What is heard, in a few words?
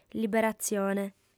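"liberatzione", female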